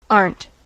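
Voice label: "aren't", English, US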